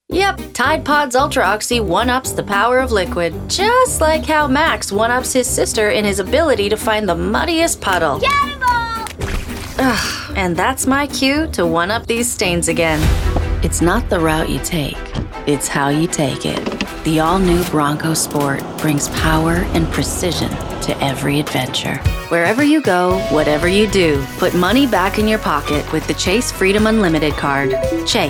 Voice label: commercial, Voice Artist